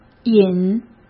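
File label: "引", Mandarin Chinese; chinese-flashcards; hsk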